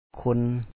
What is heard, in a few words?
"kho2n" (ຄົນ), Lao